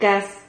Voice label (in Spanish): "caz", Silabas